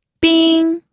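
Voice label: "bing", Mandarin Chinese, first tone; pinyin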